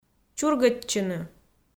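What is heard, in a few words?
"чургӧдчыны", Komi, female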